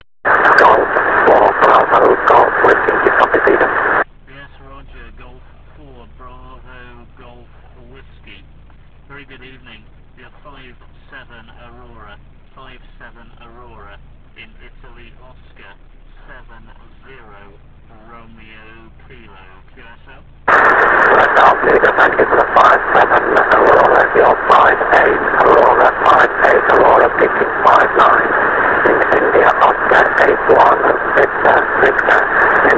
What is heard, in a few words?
qso